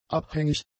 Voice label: "abhängig", German; adjectives